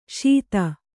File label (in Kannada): "ಶಿತಿ", Kannada